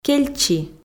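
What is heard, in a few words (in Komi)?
"кельчи", Komi, female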